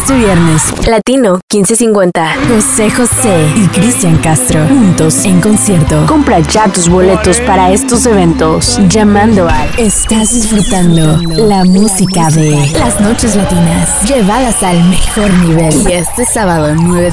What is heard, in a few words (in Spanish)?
Demo voz mujer